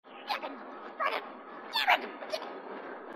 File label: grunt anger; h2dialogue; grunt crazy